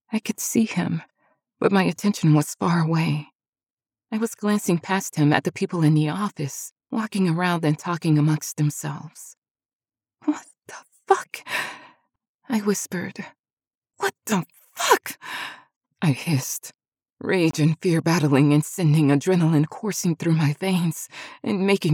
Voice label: 1st person narration - RomCom